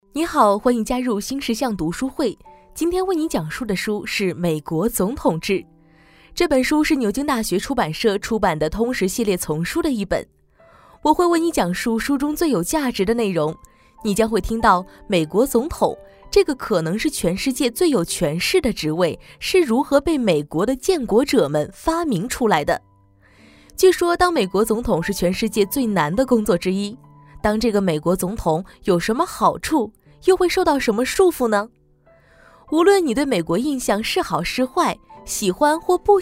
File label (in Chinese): 女 专题; 美国总统制